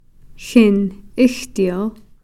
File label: "xin-ixtiil", thirty